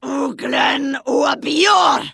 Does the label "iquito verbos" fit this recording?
No